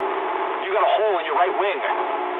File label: eng right wing mood low, speech eng; War Thunder